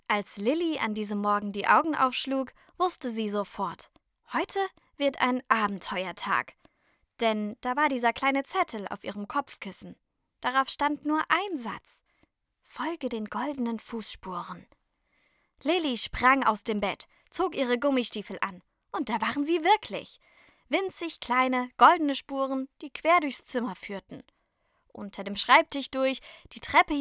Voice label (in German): Hoerprobe Kinderbuch